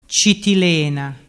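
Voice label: "citilena", Vernazzese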